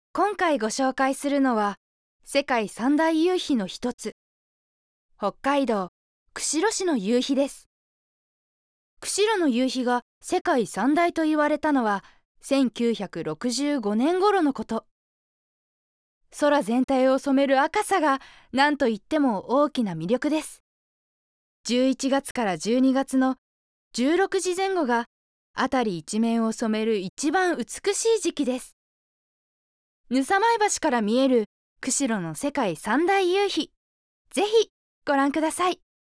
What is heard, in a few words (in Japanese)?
ナレーション